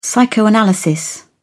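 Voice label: "psychoanalysis", British English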